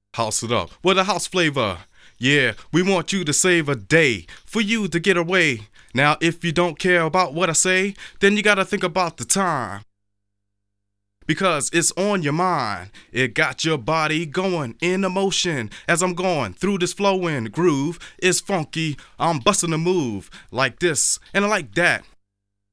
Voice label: House it up, Vocals; Masterbits CLIMAX 6